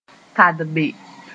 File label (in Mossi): "tadbe", moore; walk in mud